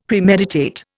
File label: "Premeditate", Indian; Dictionary